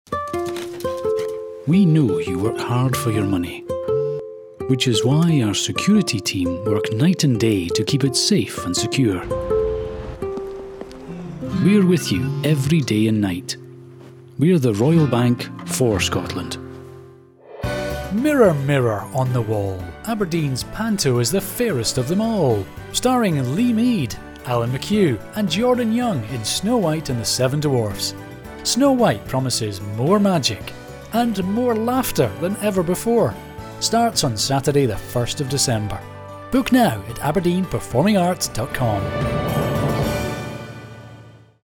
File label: Commercial, Scottish voice over